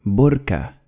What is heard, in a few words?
"Burka", Arabic